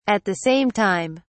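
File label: "at the same time", British English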